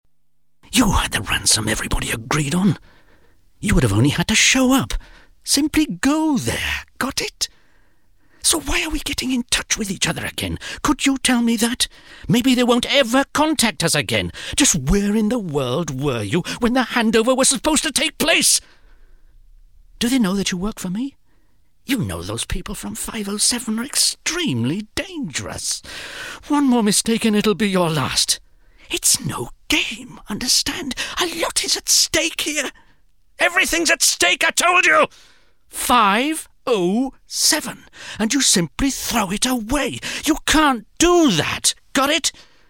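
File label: British English, Schauspiel Aufgeregt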